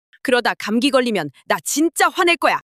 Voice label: anger

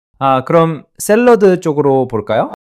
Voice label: neutral

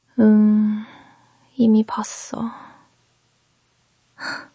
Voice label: sadness

